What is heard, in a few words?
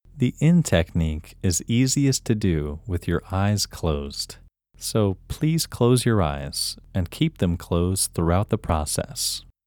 English Male